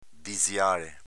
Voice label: "diziale", dialetto veneto; diziāle s.m. ditale

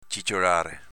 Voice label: ciciolare, chiacchierare sommessamente; v.

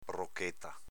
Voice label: "brocheta", dialetto veneto

